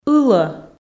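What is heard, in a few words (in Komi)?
"ылӧ", Komi, female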